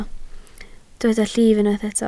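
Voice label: "llif" Welsh, spoken word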